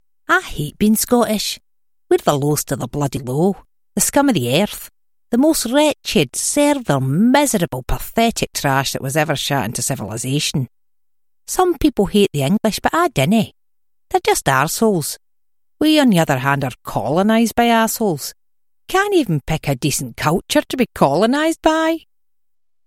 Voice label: Glaswegian

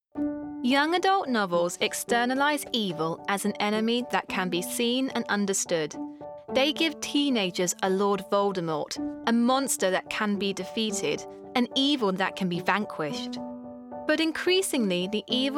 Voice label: Narrative

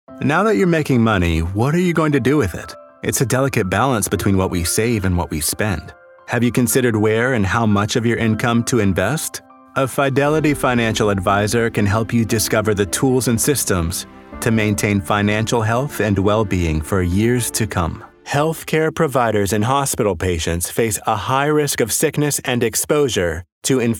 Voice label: Narration

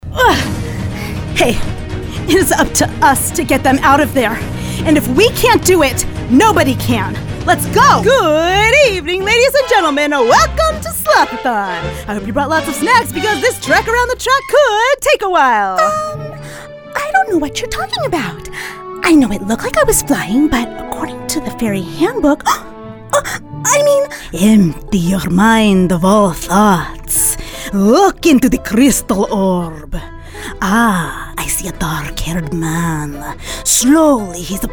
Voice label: Animation

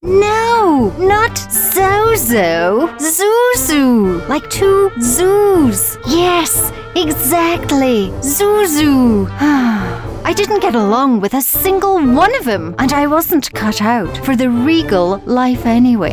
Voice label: Character

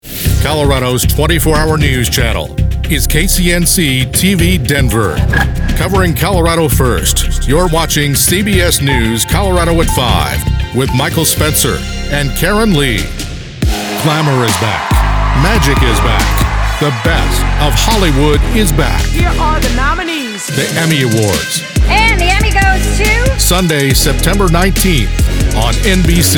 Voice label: TV Promo

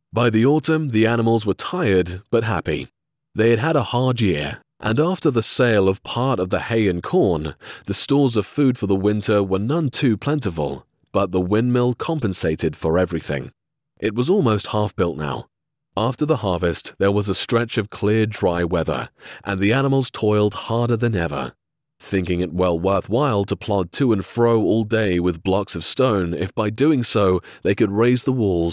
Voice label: Audiobook

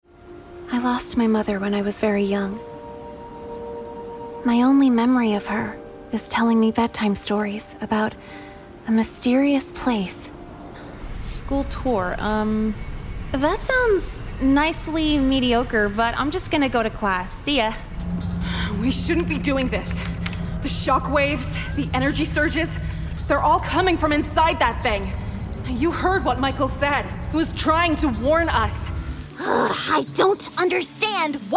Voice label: American Accent

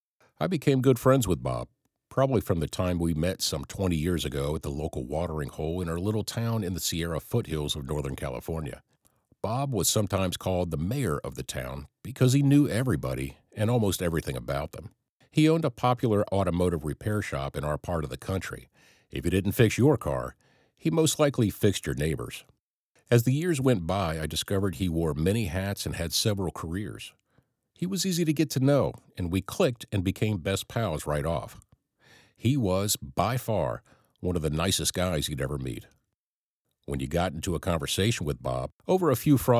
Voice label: Narration

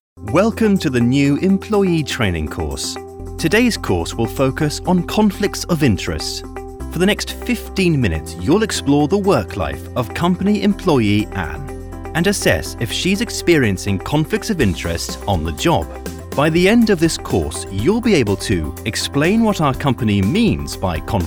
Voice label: Elearn

